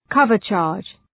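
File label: "cover charge", English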